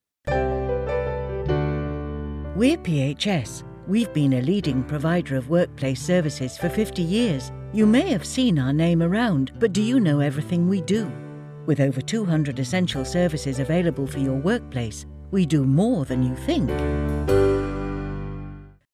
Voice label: VO for online commercial